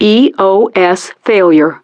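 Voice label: "EOS Failure", English; DCS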